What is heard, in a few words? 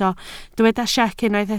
"siec", Welsh